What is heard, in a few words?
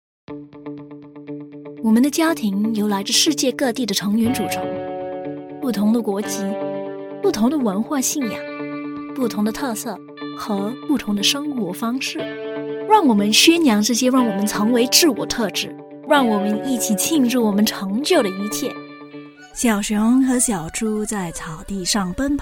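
Chinese Taiwanese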